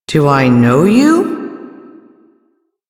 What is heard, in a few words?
Sweeper en, ambient dialog; Warframe; Duviri